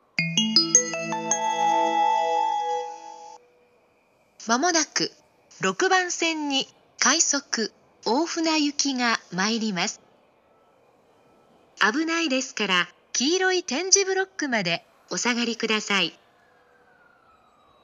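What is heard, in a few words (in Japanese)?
接近放送, 東京駅; ６番線接近放送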